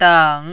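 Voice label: "dang", Mandarin, tone 3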